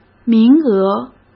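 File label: "名额", Mandarin Chinese; hsk; chinese-flashcards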